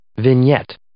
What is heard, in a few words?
"vignette", English